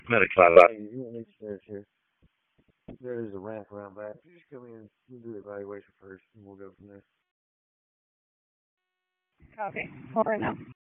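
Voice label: Public Safety Tactical, p25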